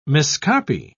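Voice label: "miscopy", British English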